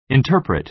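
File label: "interpret", American English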